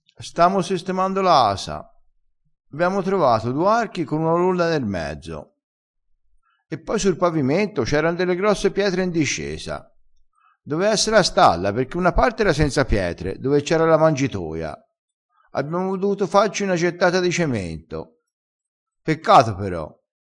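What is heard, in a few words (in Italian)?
"mangitoia", dialettale crespinese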